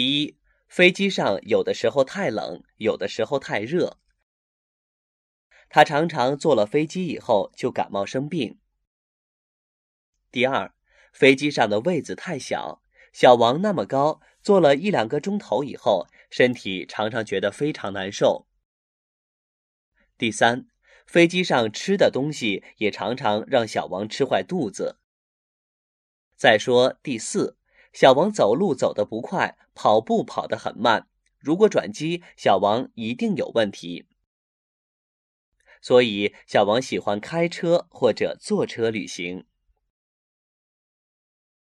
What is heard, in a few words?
narrative